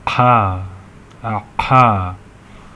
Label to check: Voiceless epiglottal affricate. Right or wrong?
Right